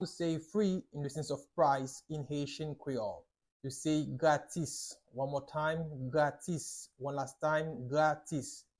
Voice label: Free price in Haitian Creole Gratis with pronunciation